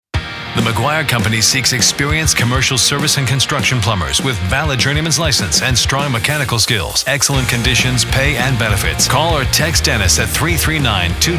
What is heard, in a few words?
plumber hire radio ad; maguire company